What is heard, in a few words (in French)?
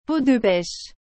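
"peau de pêche", French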